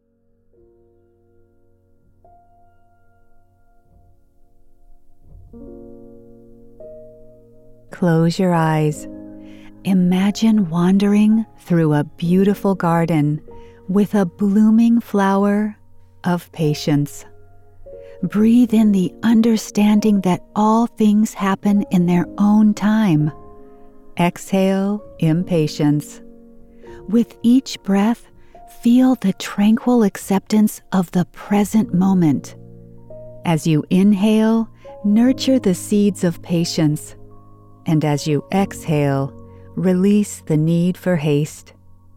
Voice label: Guided Meditation